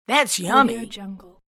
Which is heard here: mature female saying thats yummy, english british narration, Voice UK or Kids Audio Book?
mature female saying thats yummy